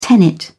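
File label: "tenet", British English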